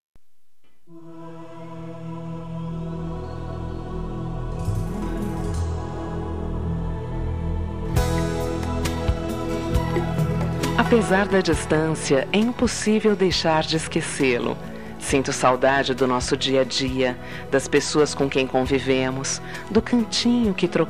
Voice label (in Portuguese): Amizade Mensagem pra Amigo Distante F